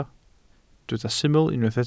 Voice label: "syml" Welsh

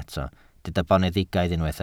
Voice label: "boneddigaidd", Welsh

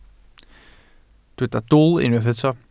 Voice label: "dwl", Welsh

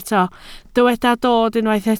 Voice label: "dod", Welsh

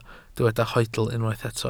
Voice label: "hoedl", Welsh

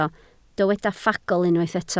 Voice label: "ffagl", Welsh

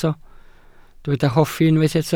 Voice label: "hoffi", Welsh

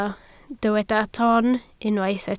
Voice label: "ton" Welsh

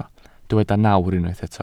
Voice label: "nawr", Welsh

